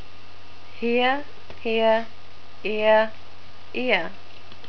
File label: "here", NZ English